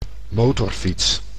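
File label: "motorfiets", Dutch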